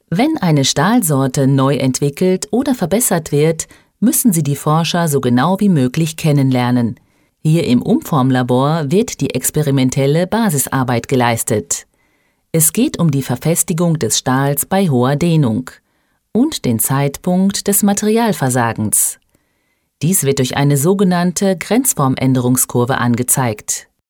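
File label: German corporate; tysk; kvinne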